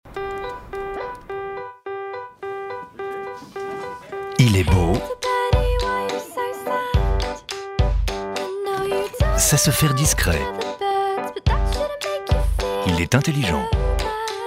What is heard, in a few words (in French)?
proche complice chaleureux; Samsung